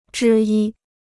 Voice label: "之一", Chinese

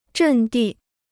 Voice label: "阵地", Chinese

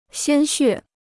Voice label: "鲜血", Chinese

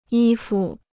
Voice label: "衣服", Chinese

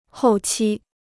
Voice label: "后期", Chinese

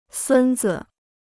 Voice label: "孙子", Chinese

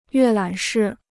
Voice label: "阅览室", Chinese

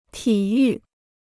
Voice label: "体育", Chinese

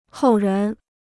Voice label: "后人", Chinese

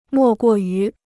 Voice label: "莫过于", Chinese

